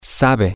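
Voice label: she knows how, Spanish, male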